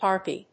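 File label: "harpy", English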